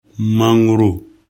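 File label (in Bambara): "mangoro", Bambara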